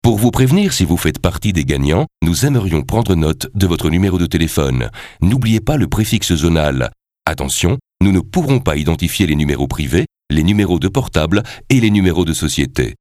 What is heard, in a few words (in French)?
Voix francophone